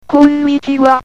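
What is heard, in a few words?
"konnichiwa", Japanese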